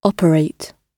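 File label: "operate", British English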